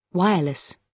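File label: "wireless", British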